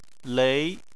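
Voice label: "lei", Chinese, second tone; Chinese Surnames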